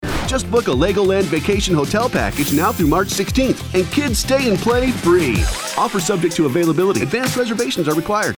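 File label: English US, Dynamisch; Fremdtake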